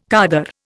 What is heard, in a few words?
"khaddar", Indian